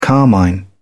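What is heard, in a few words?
"carmine", British English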